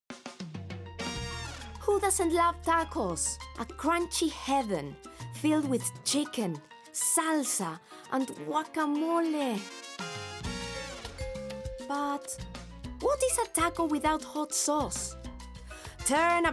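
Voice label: English, Spanish-Latin (Argentina), Female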